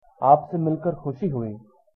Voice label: meet, hindi

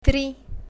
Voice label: "tri", bulgarian numbers

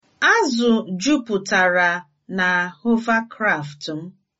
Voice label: hovercraft, igbo; Azụ juputara na hovercraft m

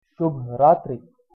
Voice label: good night, hindi

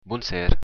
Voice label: good evening, occitan

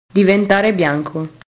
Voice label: "diventare bianco", italian

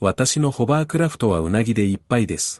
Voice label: hovercraft Japanese

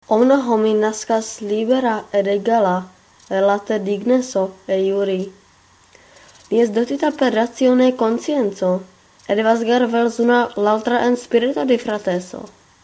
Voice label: Ido

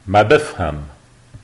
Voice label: no understand, lebanese arabic

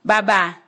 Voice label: sorry, ewe

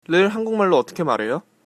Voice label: how to say, Korean

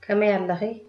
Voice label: how are you, tigrinya

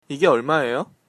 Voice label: how much, korean

